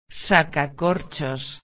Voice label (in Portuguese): "sacacorchos", espanhol